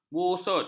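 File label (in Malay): "busut", Malay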